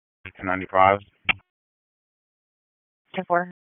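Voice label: Sheriff's Office Dispatch; WSO DISP 3; Wake; p25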